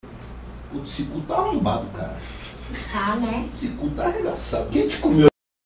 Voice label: kid